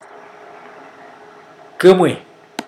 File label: "you plural remote", Mokilese